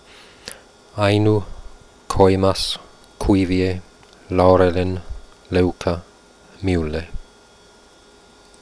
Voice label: quenya diphthongs